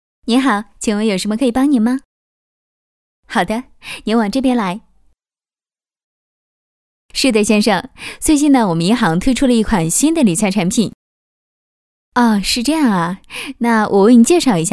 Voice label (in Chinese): 女国语, 角色人物 银行大厅经理